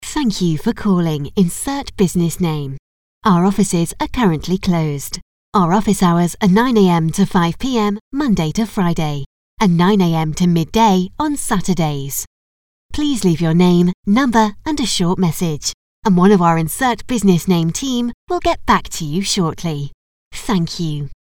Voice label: Phone Message Example